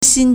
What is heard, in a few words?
"xin1", Chinese